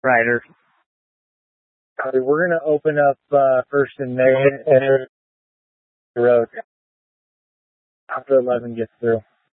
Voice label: Incident Tac 3, scanner